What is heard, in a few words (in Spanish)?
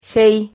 "gei", Silabas